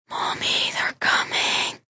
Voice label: Mommy Coming, Whispering, Male, child

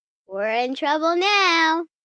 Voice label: Were In Trouble Now, 1 child, 7 yrs; Talking Sound Effects; VoiceBox; Twistedtracks

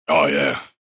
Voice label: Oh Yeah Male, Exclamation

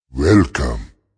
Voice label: "Welcome", Male; Talking Sound Effects

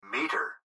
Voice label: Gps Voice, Male

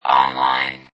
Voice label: Robot Voice "Online"

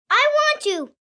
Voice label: I Want To, 1 boy, 8 yrs; Talking Sound Effects

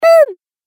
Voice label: Cartoon Game Voice "Boom"

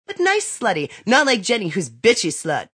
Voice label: But Nice Slutty, Female; Talking Sound Effects; Sound Effects:Voice; VoiceBox

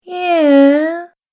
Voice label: "Yeah", Unsure Female Voice; Recorded Voice Sound Effects

